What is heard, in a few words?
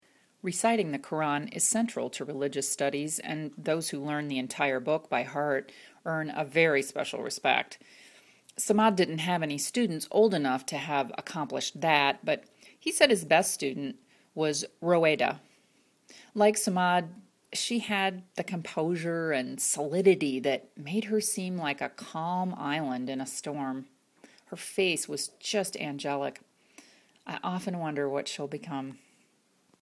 Recitation